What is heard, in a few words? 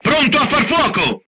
charged, crew tanks italian; War Thunder